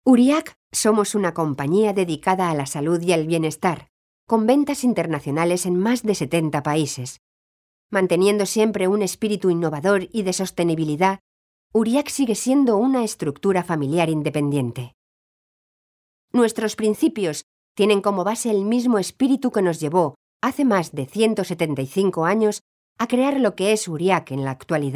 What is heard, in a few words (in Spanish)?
narración